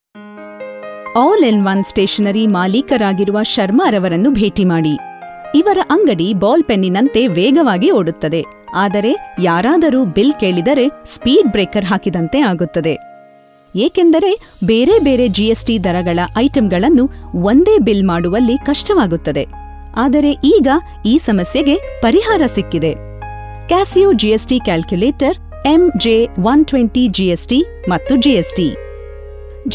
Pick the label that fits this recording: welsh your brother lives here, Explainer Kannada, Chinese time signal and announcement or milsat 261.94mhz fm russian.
Explainer Kannada